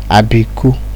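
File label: "abiku", Nigerian Pidgin, male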